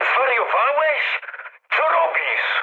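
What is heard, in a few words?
Polish player killed ally mood medium; speech; War Thunder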